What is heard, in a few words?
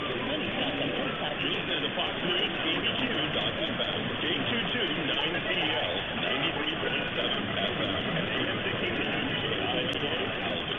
KIVA call, MW